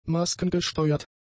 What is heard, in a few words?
"maskengesteuert", German; adjectives